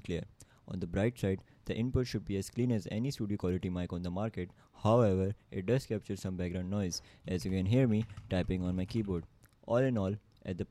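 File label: Fifine AM8 Microphone audio Sample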